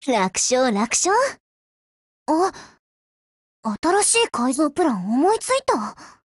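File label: WIN, Japanese; GF Alarm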